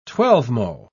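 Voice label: "twelvemo", English, British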